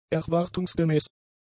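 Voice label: "erwartungsgemäß", German; adjectives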